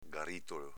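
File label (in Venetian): "garitolo", Veneto dialect; s.m. garretto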